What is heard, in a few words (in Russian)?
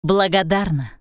"Благодарна", Russian